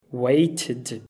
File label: "waited", English